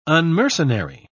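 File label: "unmercenary", British English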